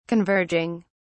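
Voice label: "converging", British English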